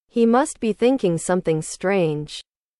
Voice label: english